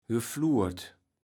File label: "geflurt", Kaevels Platt